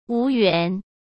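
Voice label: "无缘" Mandarin Chinese; chinese flashcards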